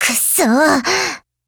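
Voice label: LOW MOOD, Japanese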